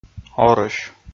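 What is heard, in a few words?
"орӧсь", Komi, male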